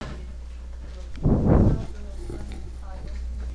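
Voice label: "lick", spoken word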